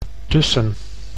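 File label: "tussen", Dutch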